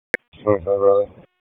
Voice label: Police Southwest District Disp, p25; Raleigh; wake p25